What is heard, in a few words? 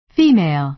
Female